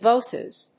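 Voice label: "voces", English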